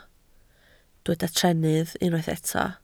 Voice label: "trennydd", Welsh